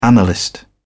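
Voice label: "analyst", British English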